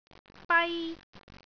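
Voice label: "bai1", Cantonese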